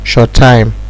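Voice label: "short time", Nigerian Pidgin, male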